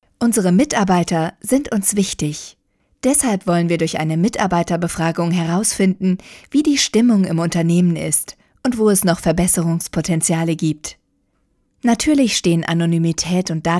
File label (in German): Mitarbeiterbefragung, imagefilme